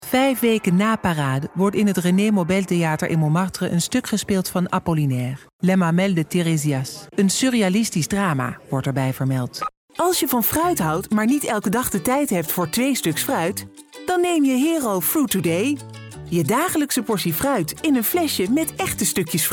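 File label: Dutch female voice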